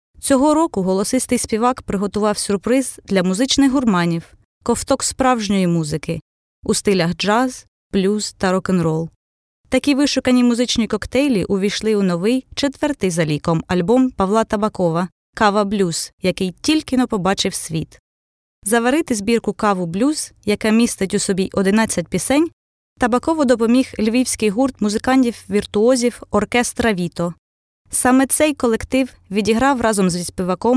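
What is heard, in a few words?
Ukrainian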